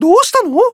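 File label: Voice Emotion Confirm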